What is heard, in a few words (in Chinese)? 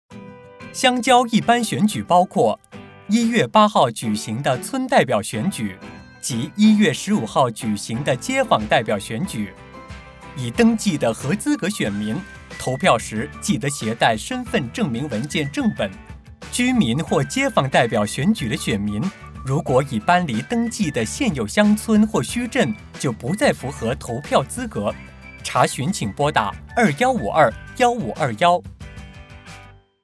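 Polling day Radio Putonghua; 投票日; 电台宣传声带; 宣传资料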